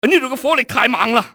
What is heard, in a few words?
under fire, cantonese, male; XBOX; enemy